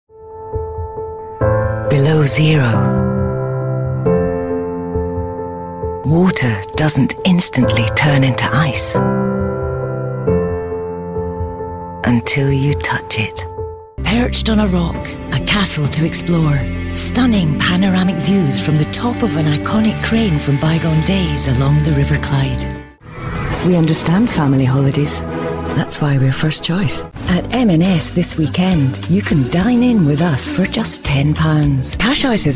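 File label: english scottish female commercial; voice demos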